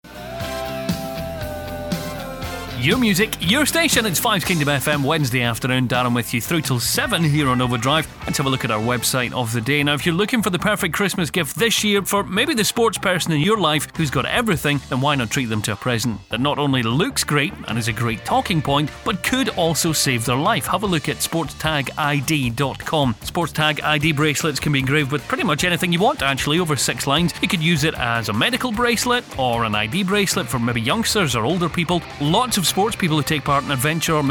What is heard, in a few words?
COMMERCIAL; Sportstagid